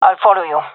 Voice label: following friend, fem